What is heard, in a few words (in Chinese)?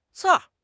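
"刺", Chinese; HSK6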